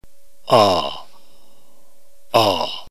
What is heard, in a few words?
omikron, ntgreek alphabet phoneme